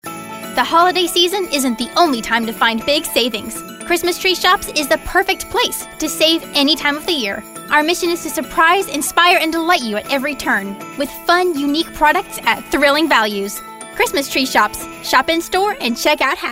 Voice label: perky